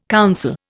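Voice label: "council", Indian English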